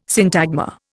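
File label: "syntagma", Indian English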